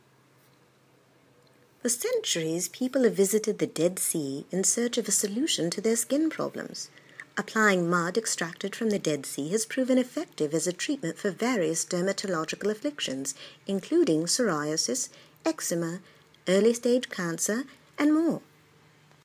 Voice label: Formal British